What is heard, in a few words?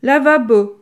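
"lavabo", French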